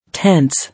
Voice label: "Tense", English